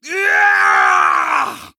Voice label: Male Vampire Death